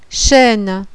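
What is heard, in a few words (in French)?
"chêne", French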